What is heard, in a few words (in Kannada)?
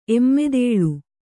"ಎಮ್ಮೆದೇಳು", kannada